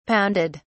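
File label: "pounded", British English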